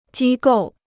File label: "机构", Chinese